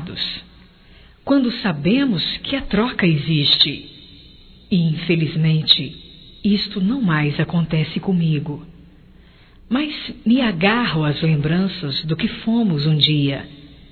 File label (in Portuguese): SAUDADES DE AMOR, fem; Declaração de amor; Telemensagens 2017